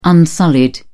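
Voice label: "unsullied", British English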